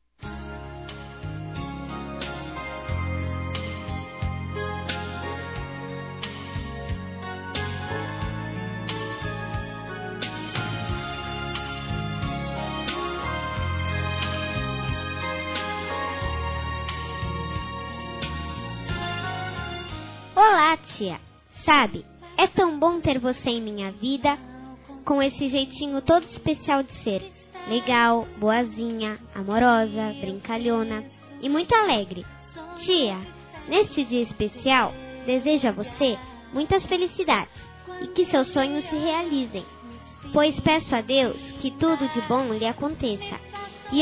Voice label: ANIV. TIA CRIANÇA-F, Telemensagens; Aniversário Infantil

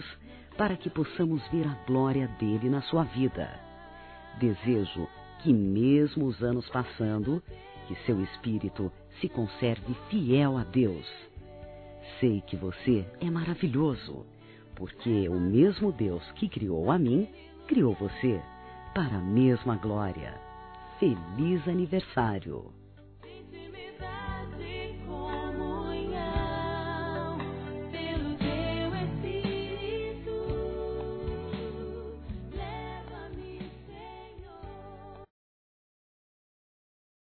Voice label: Cunhado Feminino; Aniversários; ANIV. Evangelico

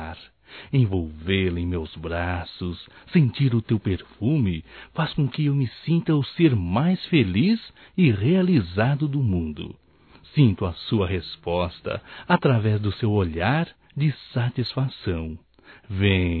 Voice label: UMA NOITE ESPECIAL (M); Telemensagens 2017; Bom dia, tarde e noite

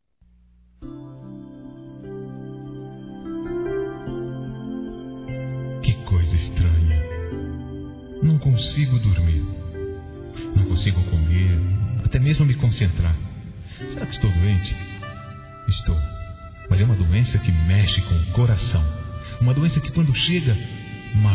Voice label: DECLARAÇÃO M; Telemensagens 2017

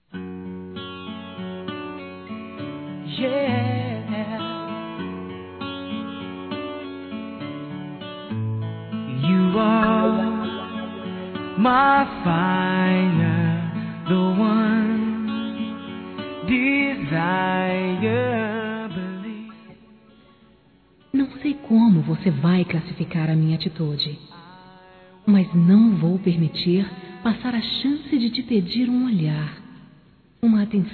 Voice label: TERMINA C ELA, fem; Telemensagens; Telemensagens 2017

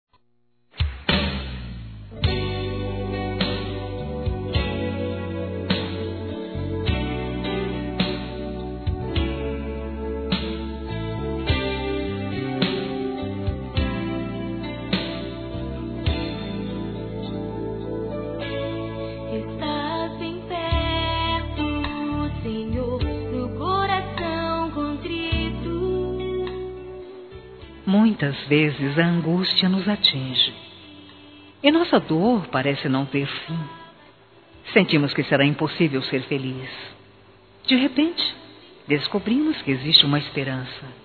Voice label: Aniversário Irmã Evangelico Feminino; Aniversários

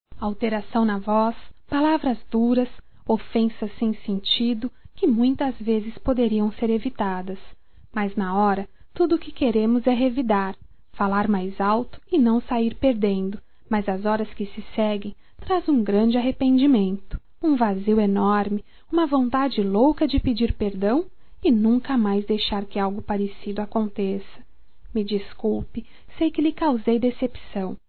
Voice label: BRIGUINHA (F); Românticas

